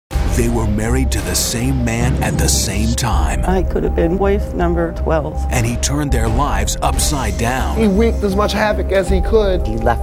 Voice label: promos